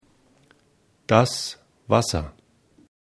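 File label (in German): "wasser", German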